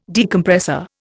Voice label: "Decompressor", Indian

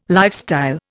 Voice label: "lifestyle", Indian

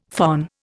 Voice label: "phon", Indian; Dictionary

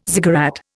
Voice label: "ziggurat", Indian

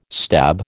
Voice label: "stab", American

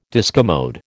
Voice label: "discommode", American

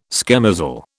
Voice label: "schemozzle", British

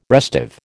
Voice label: "restive", American

